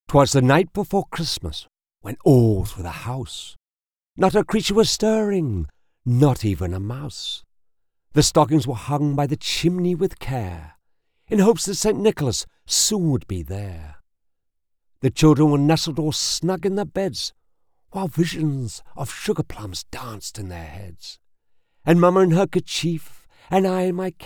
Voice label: Holiday Characters, British Male Voice; Twas The Night Before Christmas

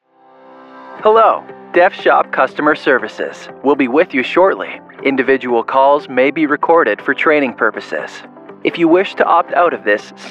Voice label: Telephone

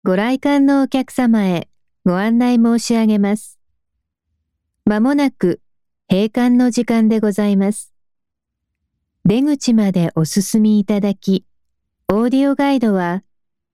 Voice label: Palace Tour Announcement

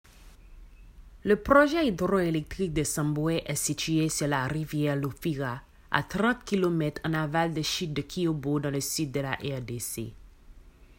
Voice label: Central African

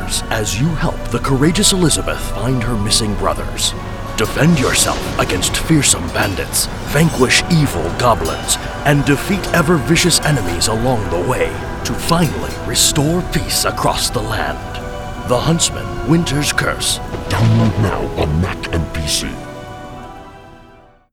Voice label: Video Game Promo; The Huntsman

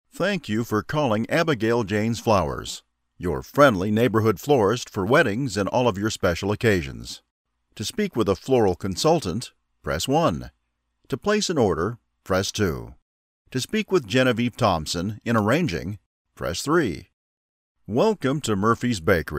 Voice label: IVR PHONE MESSAGE

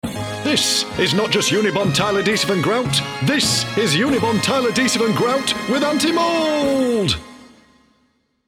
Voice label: hard-sell commercial

